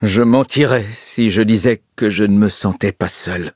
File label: loading, fr